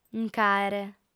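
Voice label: "nc'àere" Sardinian, female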